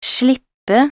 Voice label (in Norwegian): "slippe", nb_NO